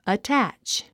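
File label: "attach", English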